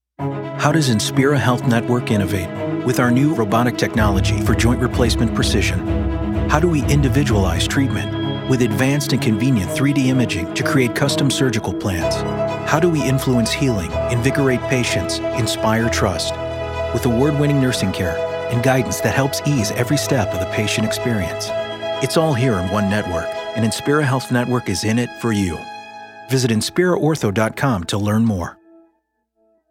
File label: Radio; Inspira; Ortho Refresh